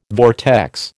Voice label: "vortex", American